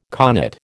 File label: "connate", American